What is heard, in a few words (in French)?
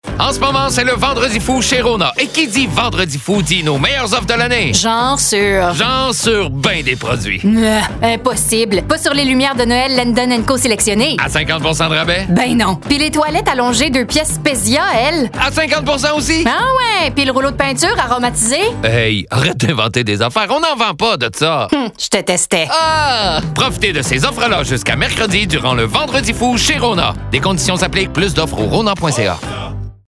Publicité; Rona